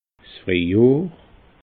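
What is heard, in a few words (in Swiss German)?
"friehjohr", Alsatian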